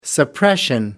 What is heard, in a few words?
"suppression", en uk